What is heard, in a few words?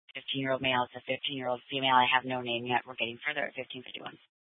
Scottsdale PD Sth Dispatch, scanner